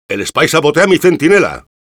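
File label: Engineer auto attacked by spy, Spanish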